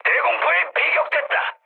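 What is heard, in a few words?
Korean damaged AA mood medium; War Thunder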